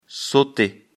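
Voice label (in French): "sauter", French; afrikalan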